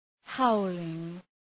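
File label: "howling", English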